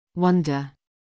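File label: "wonder", English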